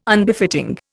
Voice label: "Unbefitting", Indian

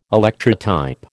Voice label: "Electrotype", American

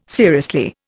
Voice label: "seriously", Indian

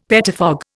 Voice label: "pettifog", Indian; Dictionary

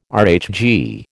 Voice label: "RHG", American

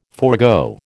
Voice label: "forgo", American

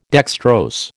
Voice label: "dextrose", American

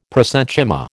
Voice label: "prosenchyma", American

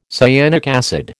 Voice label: "cyanic acid", American

